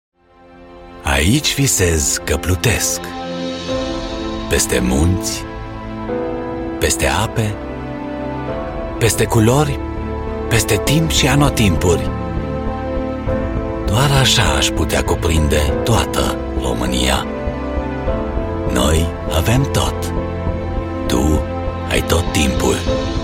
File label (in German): Rumaenisch Image souveraen